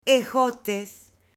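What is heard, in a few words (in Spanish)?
"ejotes" Spanish, male; ALIMENTOS; AppLenguaje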